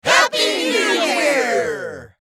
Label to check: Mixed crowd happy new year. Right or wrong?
Right